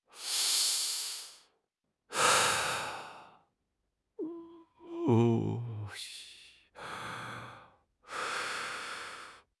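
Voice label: "hei1 ban3", Mandarin Chinese; HSK3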